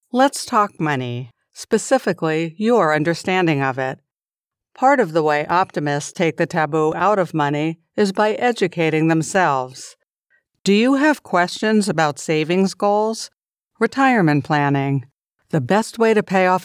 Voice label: english american corporate videos